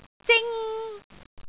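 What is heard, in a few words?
"zing1", Cantonese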